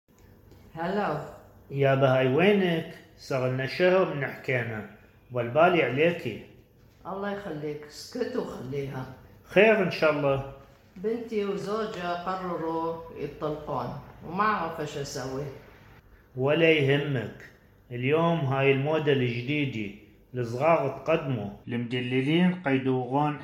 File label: Baghdadi Judeo-Arabic; Audio recording 9.1 to accompany section on Lesson 9: Gossiping; (do not ask!)